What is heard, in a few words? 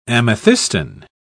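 "amethystine", English, British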